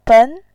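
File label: "ben", Mandarin Chinese, tone 2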